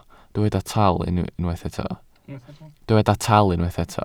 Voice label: "tal", welsh